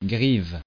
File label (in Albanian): "grive", frengjisht